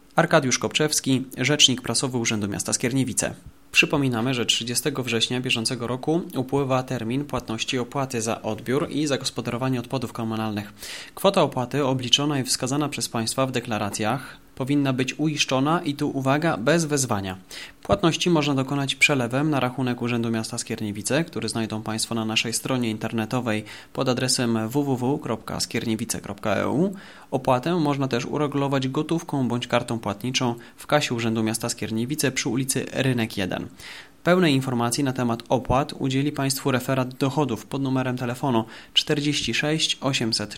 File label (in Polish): komunikat oplata smieciowa; skierniewice; zbliza sie termin oplat za gospodarowanie odpadami